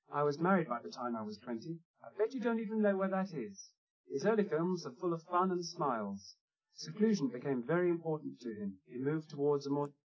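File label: male speech; SiSEC10; underdetermined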